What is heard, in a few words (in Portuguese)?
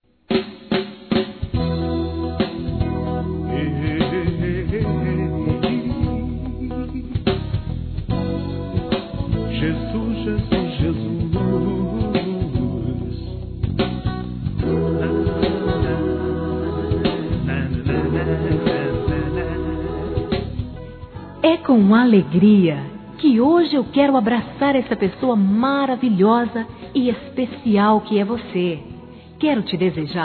Anviersário Neutro Evangelico FEMININO; Aniversários